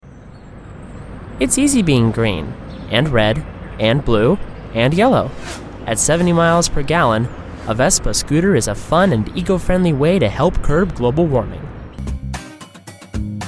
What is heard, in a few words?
male voice